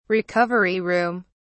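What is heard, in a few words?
"recovery room", British English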